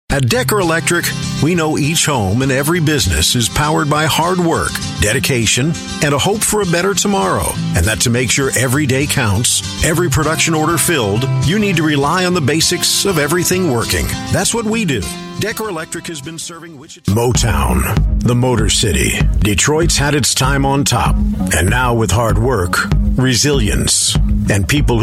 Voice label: US English COMMERCIAL